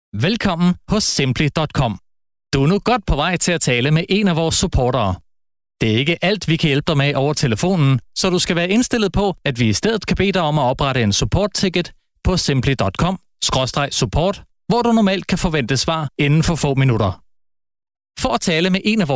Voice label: IVR, speak